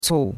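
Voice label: "cou4", Chinese; Cours-de-Chinois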